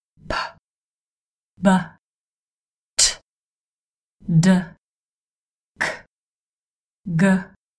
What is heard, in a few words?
Plosives